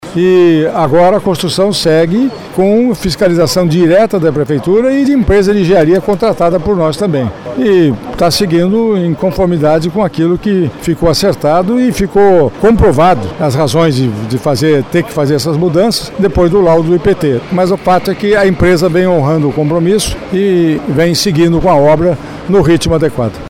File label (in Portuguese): ritmo adequado